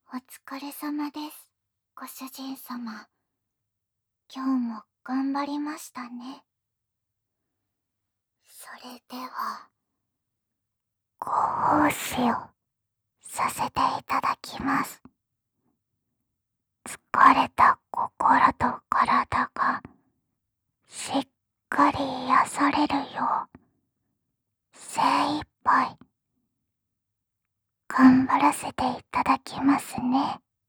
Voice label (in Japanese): よしよしボイス